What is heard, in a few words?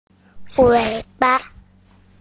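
"tail", Mandarin Chinese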